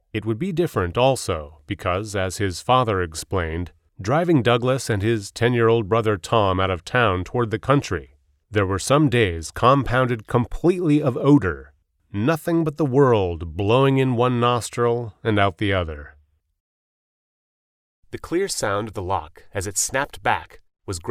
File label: audiobook